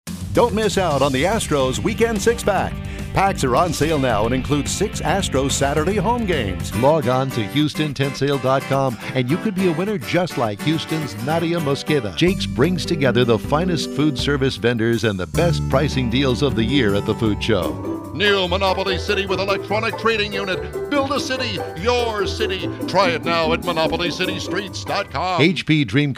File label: Commercial